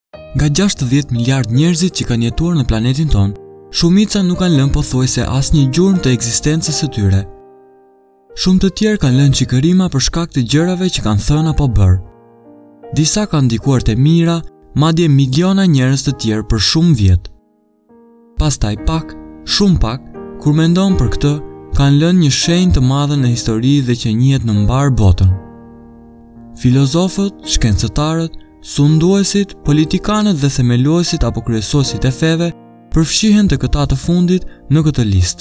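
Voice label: Albanian, General; GoLocalise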